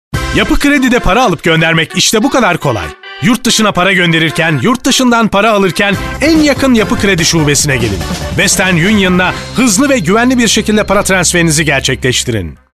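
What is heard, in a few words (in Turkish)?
reklam, Turkish; yapi kredi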